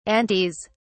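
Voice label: "aunties", British English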